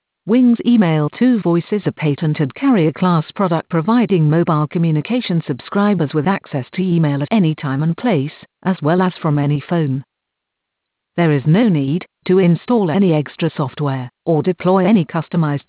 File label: Email2Voice EN